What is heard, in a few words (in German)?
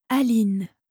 "Aline", französische Mädchennamen, Aussprache